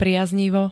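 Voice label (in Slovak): "priaznivo", Slovak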